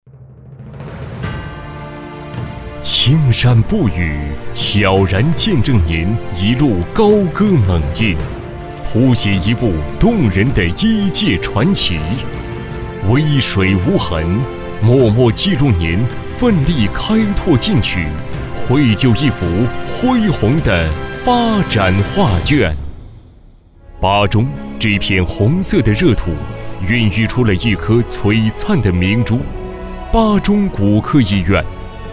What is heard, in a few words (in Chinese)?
专题 医院 中医院 激情, 男; 巴中